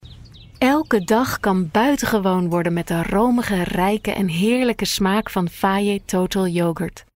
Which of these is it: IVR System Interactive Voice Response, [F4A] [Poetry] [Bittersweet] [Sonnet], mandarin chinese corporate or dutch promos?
dutch promos